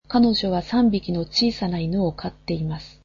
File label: japanese she has three small dogs